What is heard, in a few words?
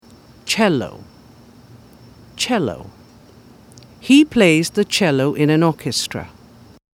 "cello", pronunciation